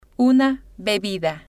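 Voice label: una Bebida, Spanish, female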